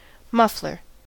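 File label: "muffler", US English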